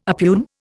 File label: "oppugn", Indian